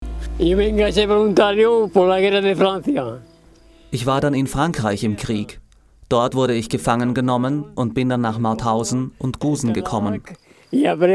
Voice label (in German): Voice Over Dokumentation; Gusen